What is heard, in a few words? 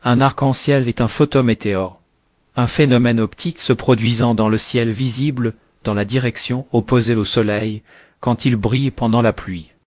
speaker, fr FR; piper-voices